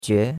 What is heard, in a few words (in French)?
"jue2", chinois; Cours-de-Chinois